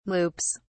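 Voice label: "loops", British English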